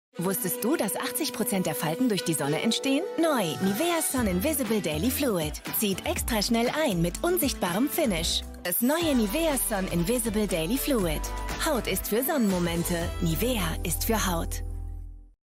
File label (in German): Selbstbewusst, natürlich, jung, Commercial (Werbung); Nivea Sun 2024; German